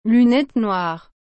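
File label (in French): "lunettes noires", French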